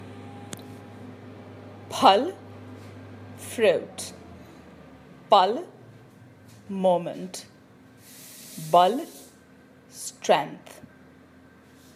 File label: hindi asp